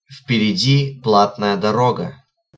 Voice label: Charge, Russian, male